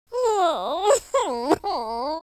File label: sad, Gangsta; speech